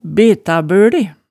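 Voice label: "betabøle", Hemsedal dialect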